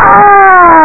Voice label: aargh, 8 bit; 8 bit average; space harrier